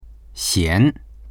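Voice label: "xian2", Mandarin Chinese; 咸; 塩辛い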